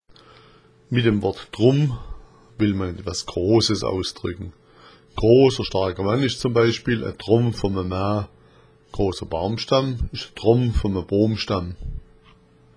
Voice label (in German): trumm, schwaebisch